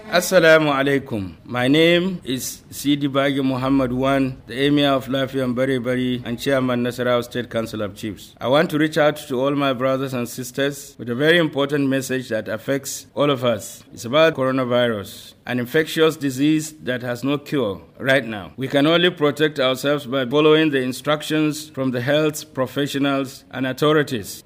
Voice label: TRADITIONAL LEADER, ENG